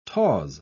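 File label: "tawse", British English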